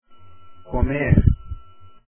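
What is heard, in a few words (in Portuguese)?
"comer", Portuguese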